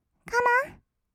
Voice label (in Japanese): "カモン", kawaii voice; ASMR; 1200 kawaii voice!!; 音ゲー